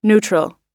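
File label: "neutral", American English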